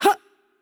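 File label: Mexican Spanish attack light voice; bloom minigame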